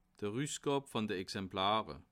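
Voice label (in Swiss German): "rusgab", Alsatian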